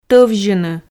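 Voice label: "товзьыны", Komi, female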